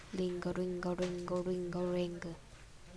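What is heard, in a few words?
"ring", spoken word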